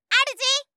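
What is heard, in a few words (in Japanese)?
"あるじ" Japanese; 呼びかけ系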